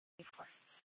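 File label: Police Department Dispatch, scanner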